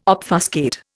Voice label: "obfuscate", Indian

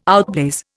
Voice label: "outblaze", Indian

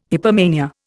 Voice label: "hypomania", Indian

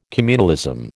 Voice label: "communalism", American

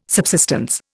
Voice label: "subsistence", Indian

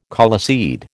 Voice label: "coleseed", American

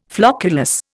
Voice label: "flocculus", Indian

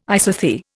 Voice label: "isothere", Indian

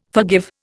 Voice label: "forgive", Indian

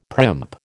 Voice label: "primp", American

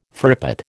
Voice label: "frippet", American

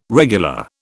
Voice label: "regular", British

